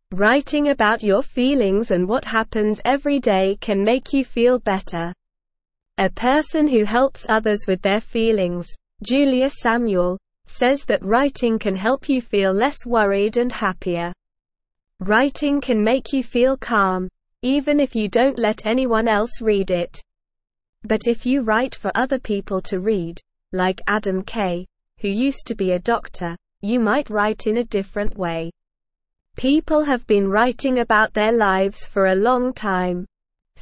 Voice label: Lower Intermediate SLOW Reading, English; English Newsroom; Writing about Your Feelings Can Make You Feel Bette